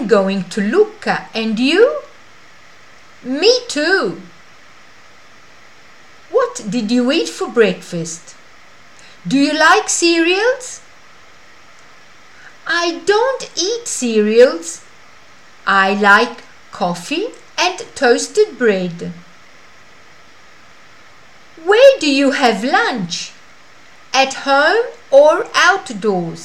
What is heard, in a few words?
Conversation at bus stop